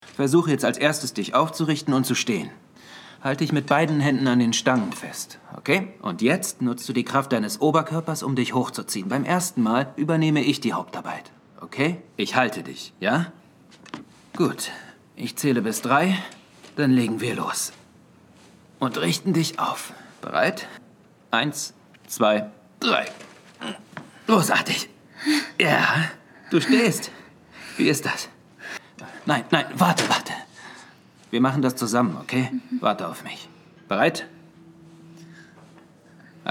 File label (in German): SYNCHRON Film; Laufen Reiten Rodeo; Netflix